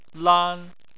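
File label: "lan", Chinese, first tone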